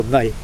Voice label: "heavy breadfruit tree", Mokilese

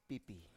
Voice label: "pipi", Biak

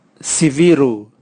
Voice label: parrot, Raga

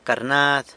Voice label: "carnad", San Lucas Quiavini; pomegranate

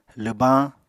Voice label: "lwbañ", Apatani

